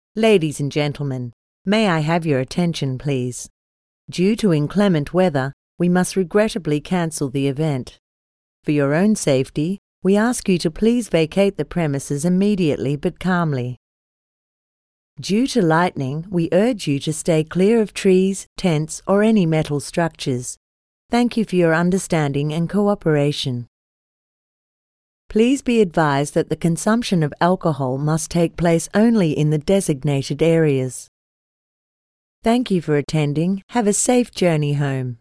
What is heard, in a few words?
Public Service Live Announcement